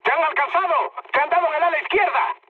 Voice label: left wing, mood high, speech; War Thunder